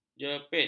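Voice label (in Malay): "jepit", Malay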